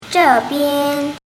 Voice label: "zhebian", Mandarin